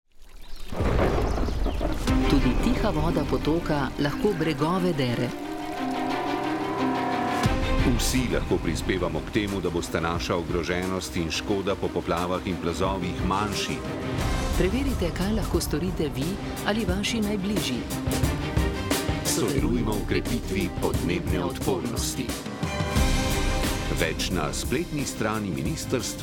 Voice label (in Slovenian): Radijski spot; krepimo odpornost; krepimo odpornost naselij na podnebne spremembe